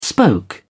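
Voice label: "spoke", British English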